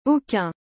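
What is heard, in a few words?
"aucun", French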